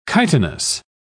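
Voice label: "chitinous", English, British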